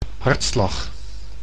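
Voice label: "hartslag", Dutch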